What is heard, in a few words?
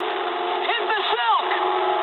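English bailout mood low; War Thunder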